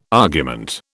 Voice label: "argument", British